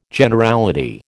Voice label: "Generality", American